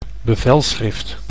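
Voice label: "bevelschrift", Dutch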